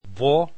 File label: "v", Esperanto alphabet